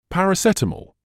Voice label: "paracetamol", English